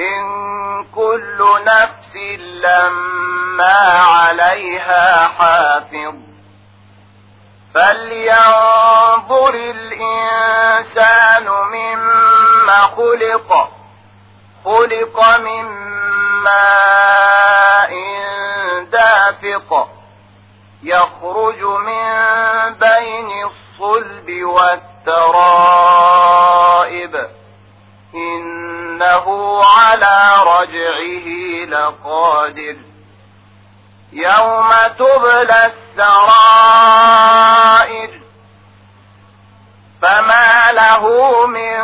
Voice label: ترتیل قرآن کریم سوره طارق; محمود شحات انور

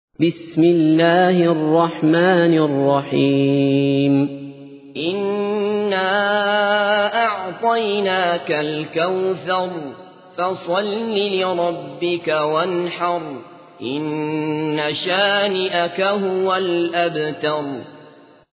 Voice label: ترتیل سوره کوثر; عبدالله بصفر

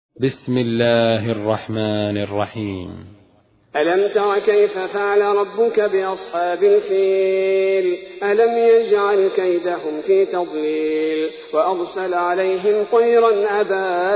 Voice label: ترتیل سوره فیل

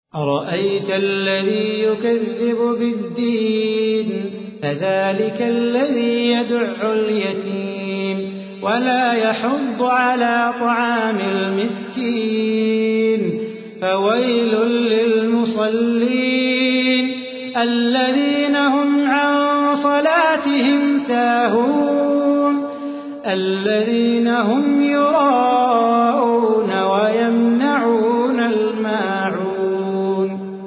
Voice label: ترتیل سوره ماعون; صلاح بوخاطر

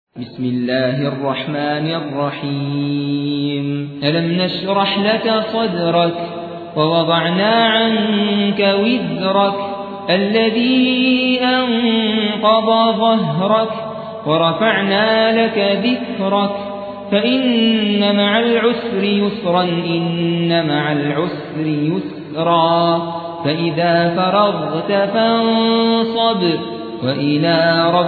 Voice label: ترتیل سوره انشراح

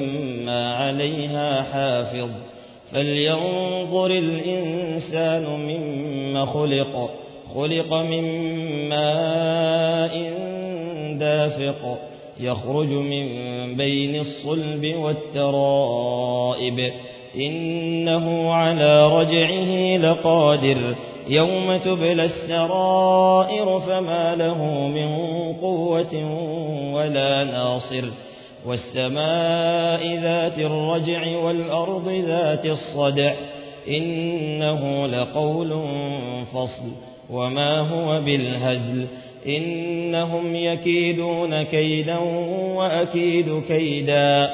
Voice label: ترتیل سوره طارق

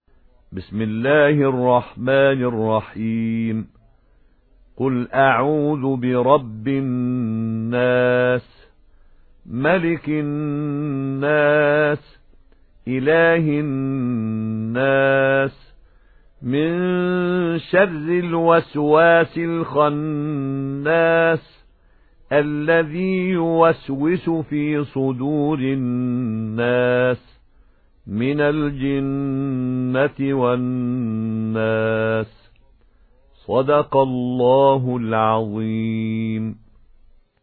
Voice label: ترتیل سوره ناس; احمد عامر

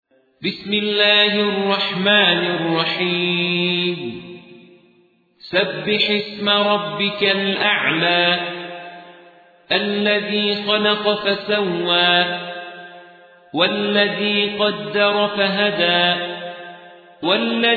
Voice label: ترتیل سوره اعلی

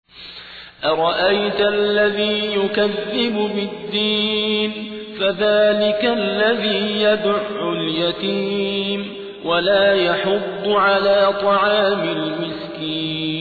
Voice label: ترتیل سوره ماعون; صابر عبدالحکم

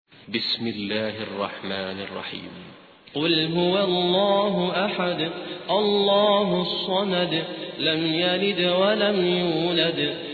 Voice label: ترتیل قاری سودانی; سوره اخلاص

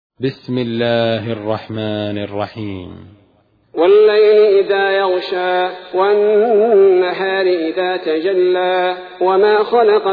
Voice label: ترتیل سوره لیل; الثبیتی

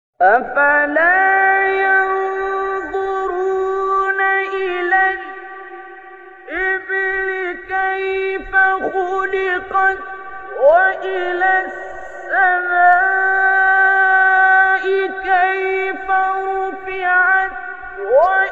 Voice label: تلاوت زیبای سوره غاشیه; استاد عبدالباسط; آیه 17 22

